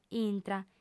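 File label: "intra", female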